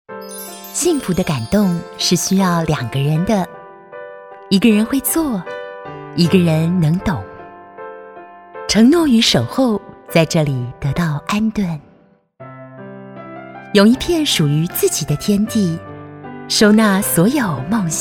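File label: Taiwan, female, explainer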